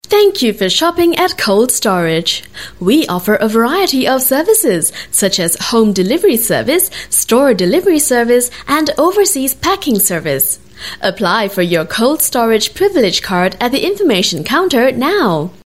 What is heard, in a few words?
Retail, English Singaporean Female